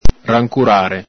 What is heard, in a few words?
"rancurare", Venetian